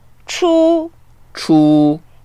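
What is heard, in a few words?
"chu1", Mandarin Chinese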